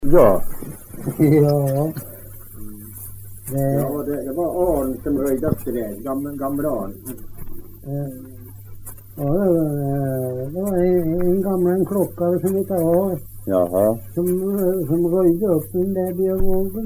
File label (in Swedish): dialekter; Folkminnen; Svenska sagor